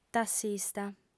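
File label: "tassista", lingua sarda, female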